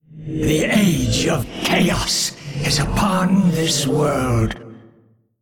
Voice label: Male Old God Start

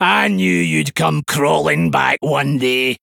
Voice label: Male Dwarf; Vanndar

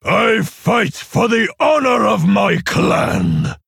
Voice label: Male Orc Idle; Samuro; Kurtrus

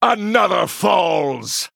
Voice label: Male Human Attack

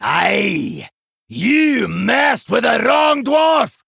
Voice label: Male Dwarf

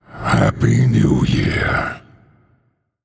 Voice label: Male Human Happy New Year Lunar

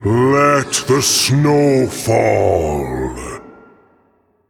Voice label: Male Elemental Boss Idle

